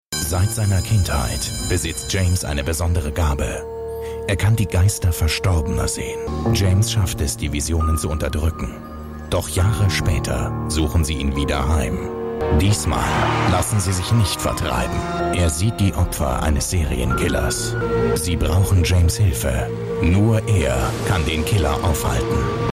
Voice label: Erzaehler; RTL; Talking To Heaven

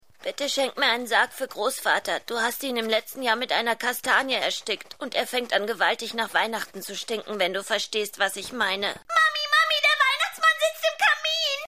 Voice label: Junge Tochter

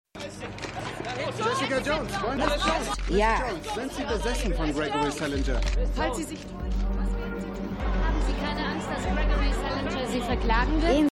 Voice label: ReporterInnen; Jessica Jones

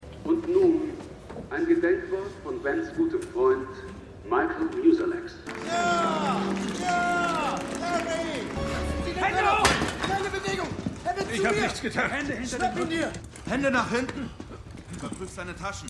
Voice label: Leiter Mann Polizisten

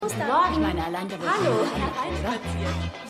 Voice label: Lehrerin; On The Rocks

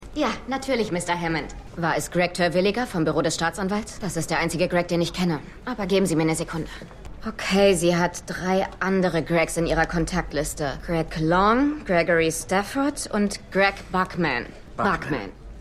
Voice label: Assistentin; Hardings; Falling Water

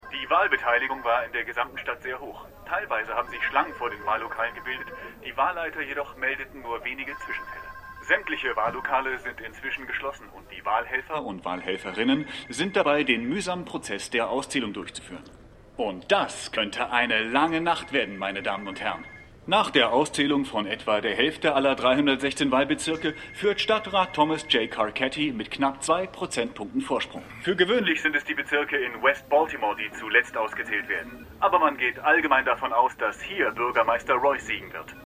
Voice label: TV Nachrichtenmoderator; The Wire

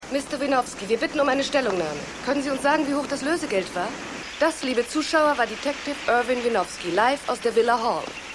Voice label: Fernsehreporterin

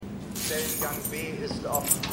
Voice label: Durchsage; Bluff City Law

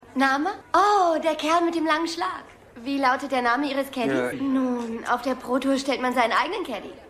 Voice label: Frau Anmeldung; Happy Gilmore

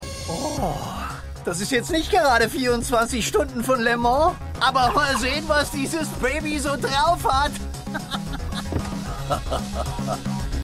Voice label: Aelterer; The Flash

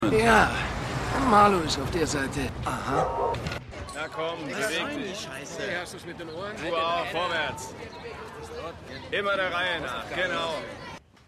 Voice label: Raucher Polizist Mann Bar Mann Bar Frau Bar; The Wire

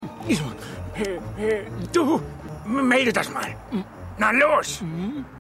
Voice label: Gefesselter Polizist

